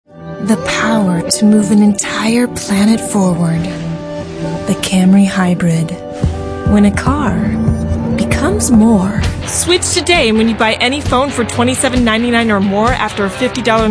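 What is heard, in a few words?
female, late 20s mid 30s, contemporary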